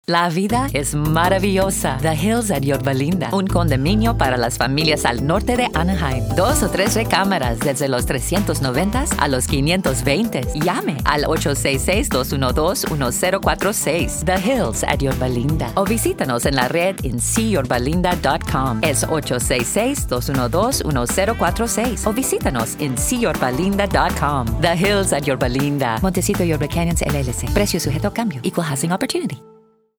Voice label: Spanish